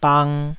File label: "bang2", taiwanese syllable; 台語音節發音檔案